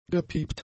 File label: "gepiept", German; adjectives